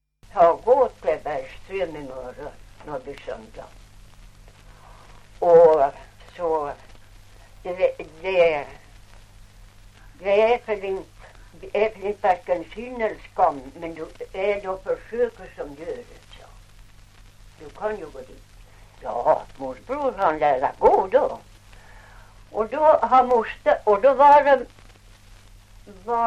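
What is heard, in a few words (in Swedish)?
Ovansjö Gästrikland, svenska sagor dialekter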